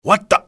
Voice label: "what the", english male; XBOX; enemy

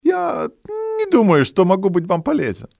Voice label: dont think, male; XBOX; civ

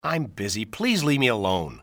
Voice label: im busy, english male; XBOX; civ

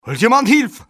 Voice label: get help, male; XBOX

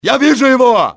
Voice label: see him, russian soldier; XBOX

